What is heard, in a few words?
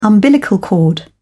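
"umbilical cord", British English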